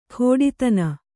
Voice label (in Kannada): "ಖೋಡಿತನ", Kannada